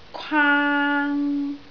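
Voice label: "kwaang1", Cantonese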